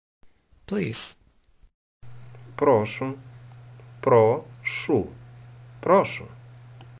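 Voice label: Ukrainian Please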